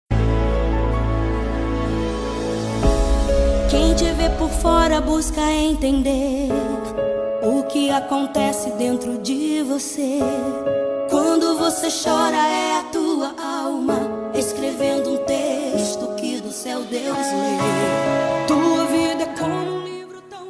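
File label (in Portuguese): ANIV NEUTRO male, Telemensagem Aniversário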